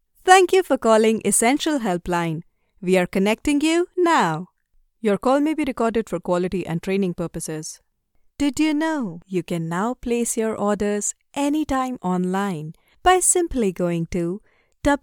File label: IVR English